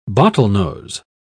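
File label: "bottlenose", British English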